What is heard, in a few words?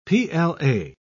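"pla", British English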